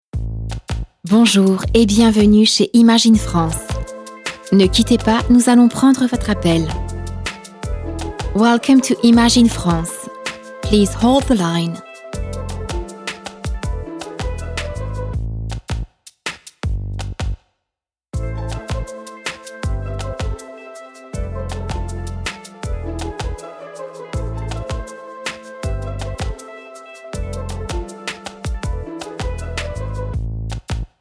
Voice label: voix off message acceuil telephonique femme; Studio Com'un Son; SPOTS RADIOS

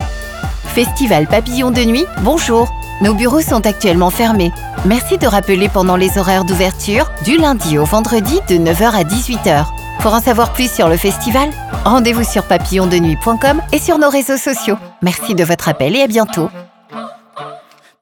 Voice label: voix off message accueil telephonique femme; 04 - Voix Femme

